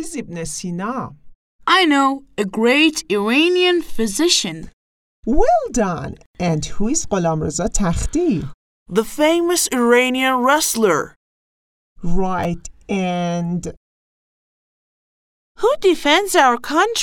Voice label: conversation